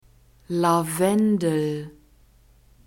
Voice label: "lavendel", german; nouns gender feminine